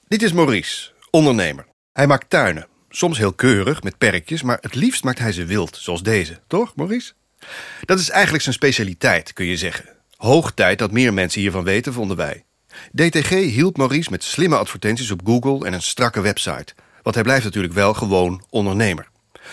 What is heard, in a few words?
Voice Demo